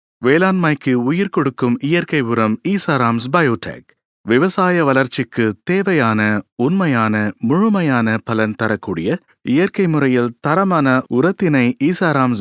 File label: Tamil